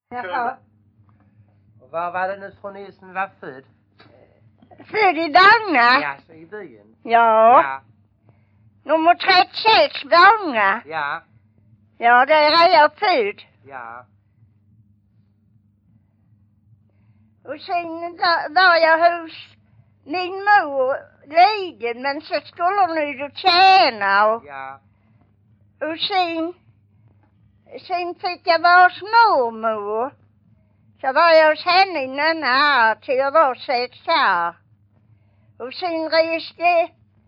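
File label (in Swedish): Vånga Skåne, Svenska sagor, dialekter; Folkminnen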